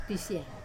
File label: "pisia" food, Wamesa